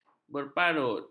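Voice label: "berparut", Malay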